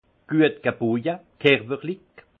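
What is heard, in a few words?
"guetgebojt", Alsatian, Haut Rhin, charpenté; vin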